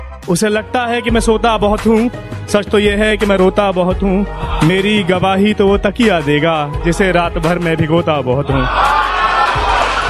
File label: Sad Hindi Shayari Ringtone